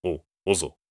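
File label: question short, voice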